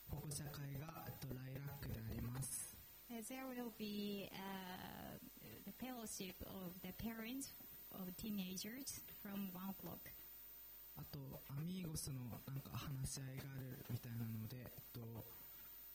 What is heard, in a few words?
announcement; sunday message 2022